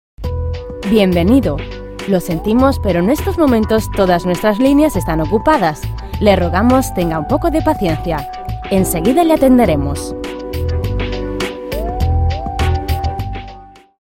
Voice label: esp

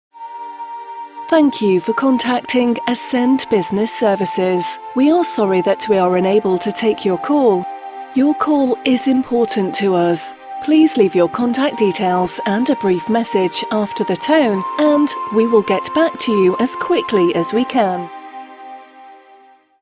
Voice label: brit uk

